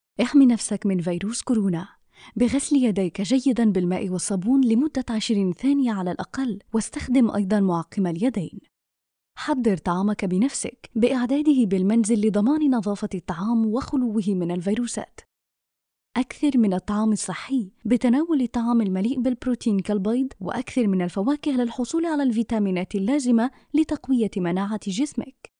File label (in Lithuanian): (MOT) Arabų balsas, moteris